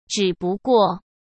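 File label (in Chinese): "只不过", Mandarin Chinese; hsk; chinese-flashcards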